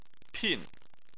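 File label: "pin" fourth tone, Chinese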